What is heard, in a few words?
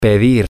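to Ask For, spanish, male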